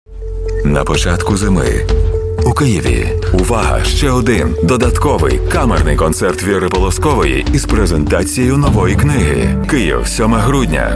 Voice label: russian voice over male narration

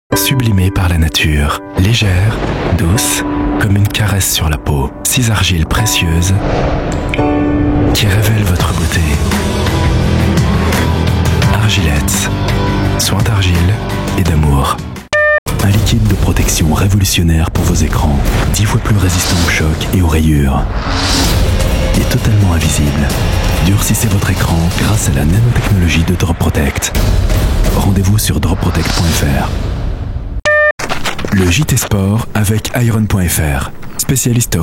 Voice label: french voice over male commercial; fransizca seslendirme

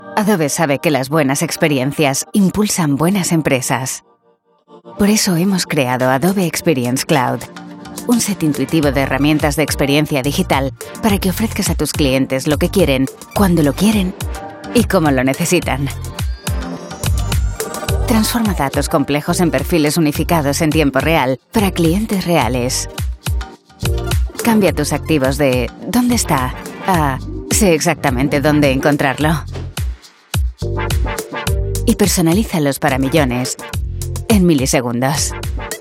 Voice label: spanish voice over female commercial

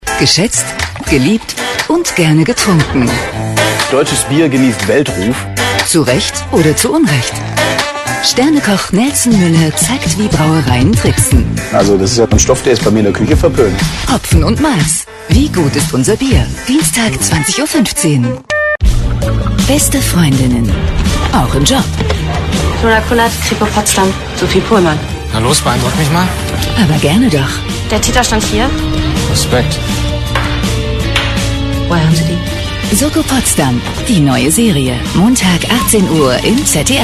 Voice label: germany voice over female commercial; Almanca Seslendirme; Almanca Dublaj; BiberSA Prodüksiyon

